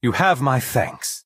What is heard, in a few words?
Altmer male thank you